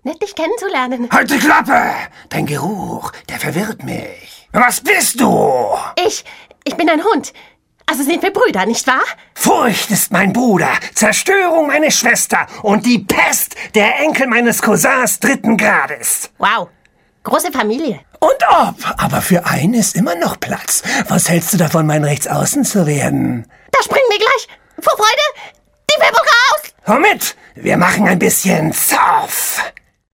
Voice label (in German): zeichentricksynchron rolle fleischklaeffer nachgesprochen; Demo Zeichentricksynchron; International Voice